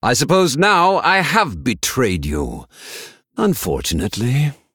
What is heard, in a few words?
Male Blood Elf